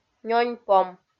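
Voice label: "нёньпом", Komi, female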